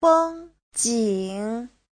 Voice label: "feng1 jing3", chinese; 风景; phong cảnh